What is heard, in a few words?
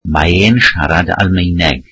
welsh he speaks German